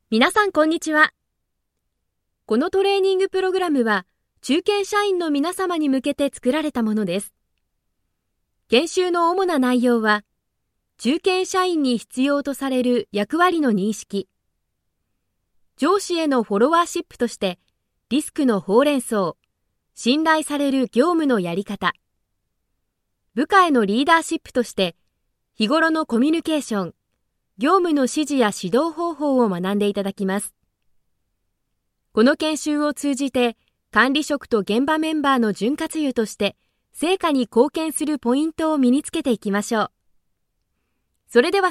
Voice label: japanese e-learning; japanese voice over talent